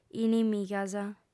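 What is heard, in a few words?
"inimigas", female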